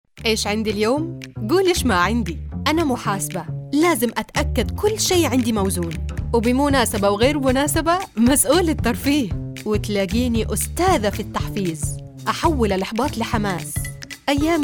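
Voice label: Arabic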